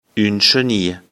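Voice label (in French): "chenille", French; afrikalan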